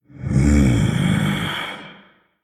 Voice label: Male Orc Thinking; Rexxar Deathknight